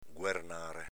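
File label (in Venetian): "guernare", Veneto dialect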